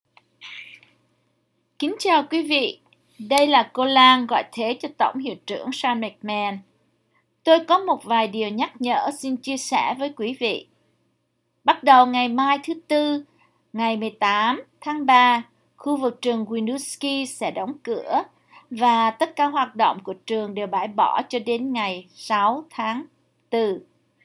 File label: vietnamese